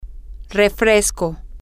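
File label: soda, Spanish, female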